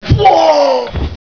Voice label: die violent; gearbox; demoman